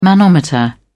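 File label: "manometer", English, British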